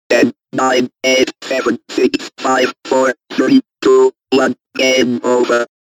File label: robot countdown 10 to 1 game over